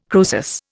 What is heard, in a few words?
"Croesus", Indian